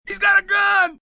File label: Hes Got A Gun, Police, male: 'He's got a gun', warning, (human voice speaking); Talking Sound Effects